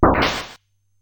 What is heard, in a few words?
hurt; mmx sfx